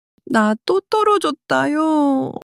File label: crying, monologue